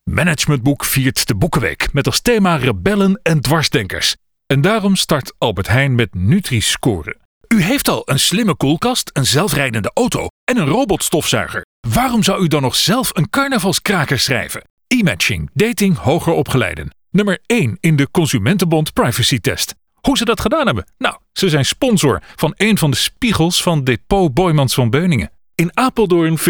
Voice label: male dutch commercial compilation